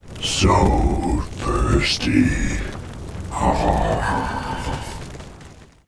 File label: Pissed; war3; HeroFirelord